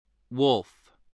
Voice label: "wolf", spelling

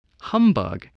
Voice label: "humbug", voice spelling

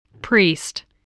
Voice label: "priest", voice, spelling

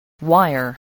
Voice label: "wire", voice, english

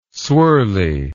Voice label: "swirly", English word

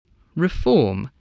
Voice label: "reform", spelling voice

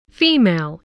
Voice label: "female", spelling

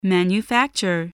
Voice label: "manufacture", spelling voice

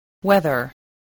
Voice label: "whether", spelling

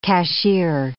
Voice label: "cashier", English

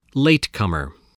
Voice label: "latecomer", English

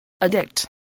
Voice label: "addict", English voice

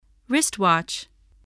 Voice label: "wristwatch", voice, english

